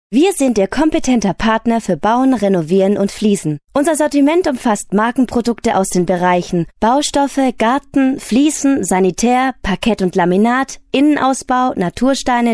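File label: german female commercial; voice demos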